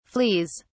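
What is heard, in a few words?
"fleas", British English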